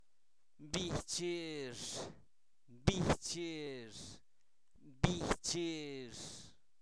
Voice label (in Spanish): Zapoteco, santo domingo albarradas; INALI; posesivos; su hermano